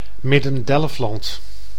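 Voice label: "Midden-Delfland", Dutch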